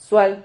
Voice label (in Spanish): "xual", Silabas